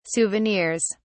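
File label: "souvenirs", English, British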